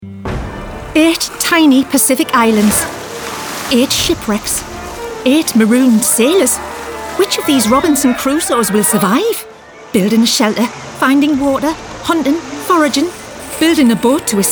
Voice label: Commercial

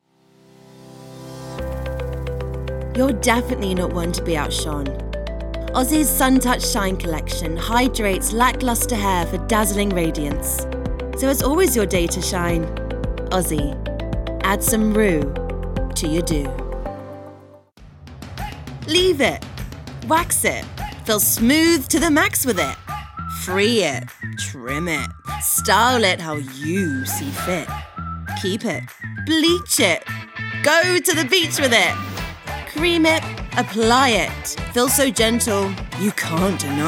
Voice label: COMMERCIAL

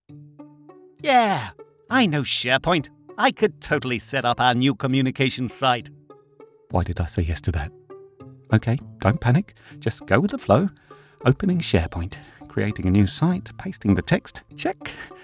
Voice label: Commercial Montage

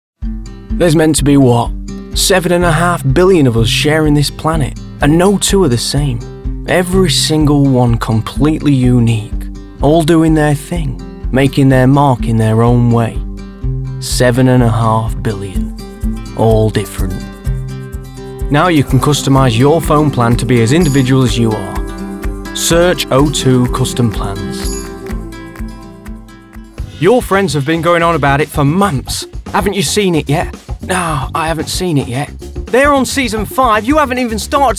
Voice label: Commercial

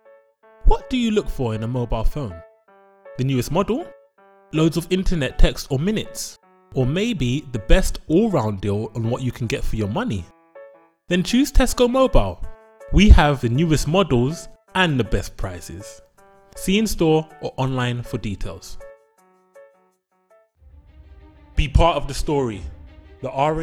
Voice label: Commercial Montage

